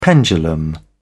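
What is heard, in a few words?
"pendulum", English, British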